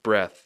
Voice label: "breath", English